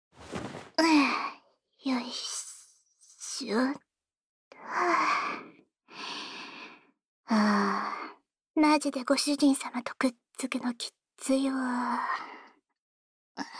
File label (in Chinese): 抱紧后在耳边言语挑逗轻语（两耳）; 雌小鬼萝莉女仆～对抖M主人进行欺负侍奉～